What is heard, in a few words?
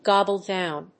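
"gobble down", English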